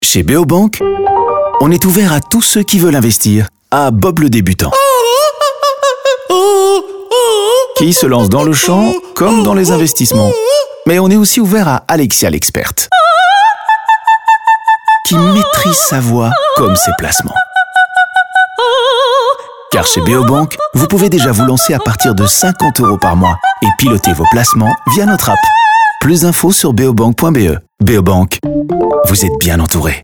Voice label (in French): radio